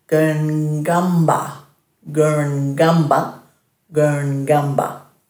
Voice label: "gurngamba", Dhurga